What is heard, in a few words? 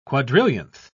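"quadrillionth", English, British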